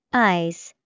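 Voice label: "eyes", English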